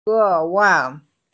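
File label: "gewai", Mandarin Chinese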